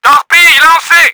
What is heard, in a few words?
TORPEDOES, french; Battle Engine Aquila; XBOX